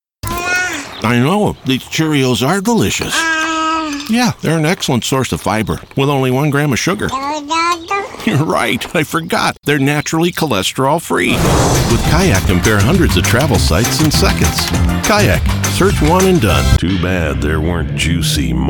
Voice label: Commercials